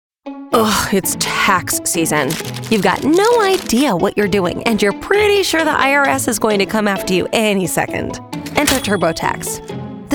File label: sarcastic dry playful, commercial promo; turbo tax